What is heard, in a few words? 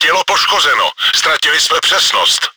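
gun damaged, чешской озвучки; Czech; World of Tanks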